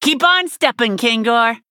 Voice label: Nonbinary Night Elf; Mission7 Victory